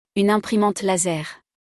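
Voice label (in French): "Une imprimante laser", French; vocabulaire; le lexique de l'informatique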